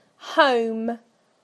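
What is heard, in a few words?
"home", British English; PictureDictionary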